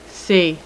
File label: "c" female; alphabet; Web Clip Empire 150,000